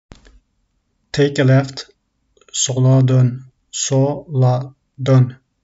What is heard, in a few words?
take a left, Turkish; the talking turkish phrasebook